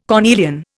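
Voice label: "cornelian", Indian English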